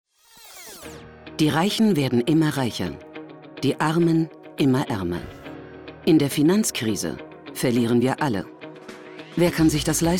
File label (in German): Kommentar